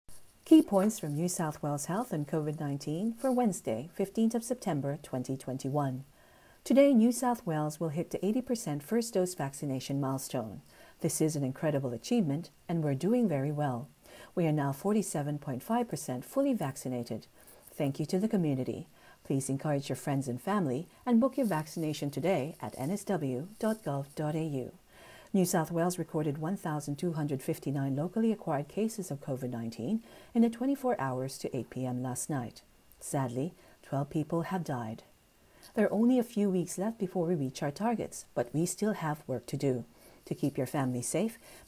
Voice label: english